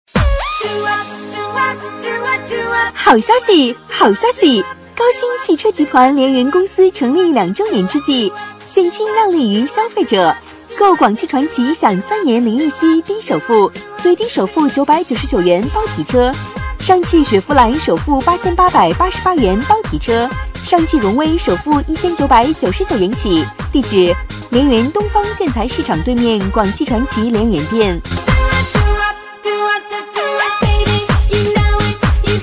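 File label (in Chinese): 【促销】汽车, 女; 高新汽车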